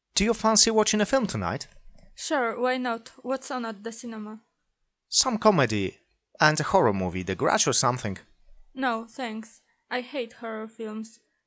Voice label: dialog